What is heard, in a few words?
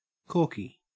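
"corky", Australian English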